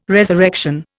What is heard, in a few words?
"resurrection", Indian